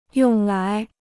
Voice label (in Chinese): "用来", Chinese